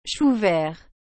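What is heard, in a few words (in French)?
"chou vert", French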